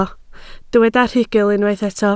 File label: "rhugl", Welsh